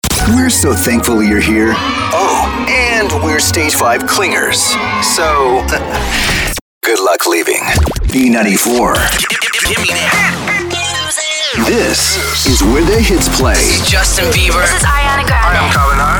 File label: radio top 40, radio imaging